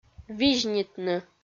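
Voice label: "визьнитны", Komi, female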